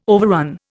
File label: "overrun", Indian